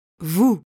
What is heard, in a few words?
"vous", French